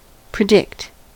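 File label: "predict", US English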